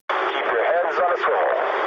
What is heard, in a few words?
eng chat, speech eng